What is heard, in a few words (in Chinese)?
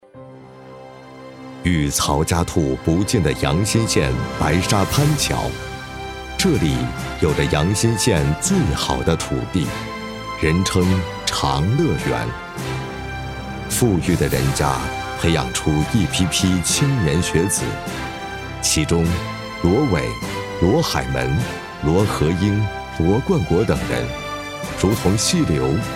红色历史纪录片